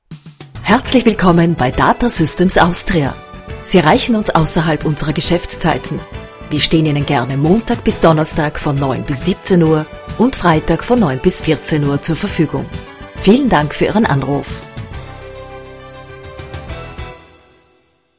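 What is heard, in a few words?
german Austrian female ivr; voice demos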